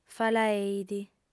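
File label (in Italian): "falaeit", lingua sarda, female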